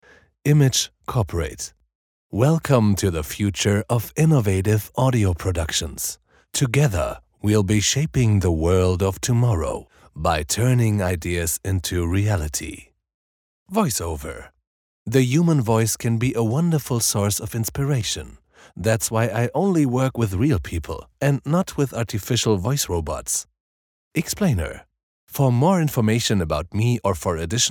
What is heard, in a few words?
German English M